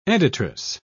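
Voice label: "editress", British English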